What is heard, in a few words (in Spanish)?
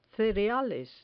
"cereales", Spanish